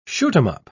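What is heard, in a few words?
"shoot-'em-up", British English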